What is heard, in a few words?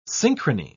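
"synchrony", British English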